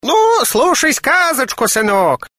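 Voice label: parody, old man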